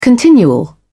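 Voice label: "continual", British English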